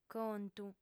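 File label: "contu", female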